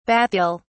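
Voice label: "bathyal", British English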